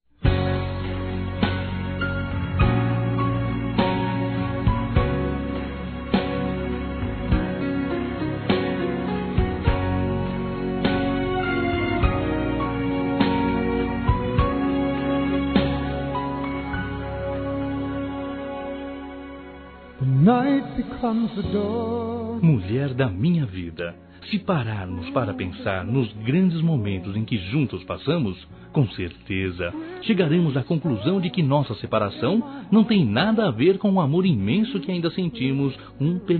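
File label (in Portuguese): Namorados Com Reconciliação, male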